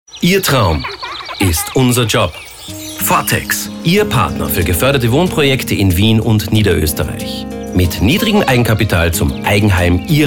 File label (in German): Sprechercasting Spot; Vortex